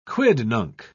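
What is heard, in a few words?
"quidnunc", British English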